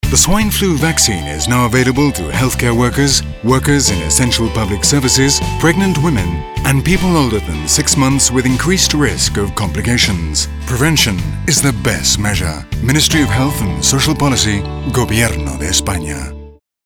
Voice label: INGLES

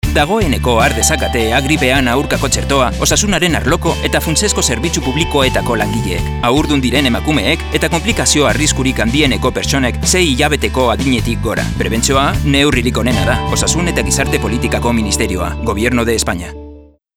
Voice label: EUSKERA

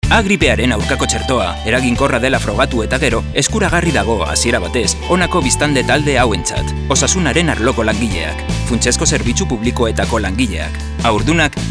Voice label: EUSKERA

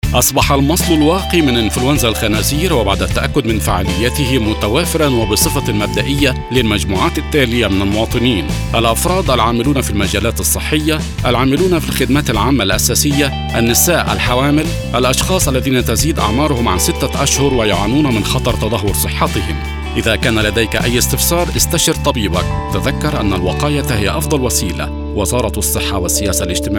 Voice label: ARABE

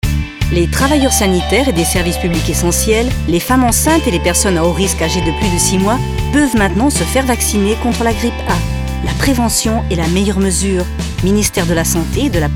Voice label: FRANCES

